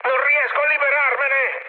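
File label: Italian aircraft damaged mood med, speech; War Thunder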